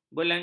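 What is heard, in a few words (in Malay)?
"belanjakan", Malay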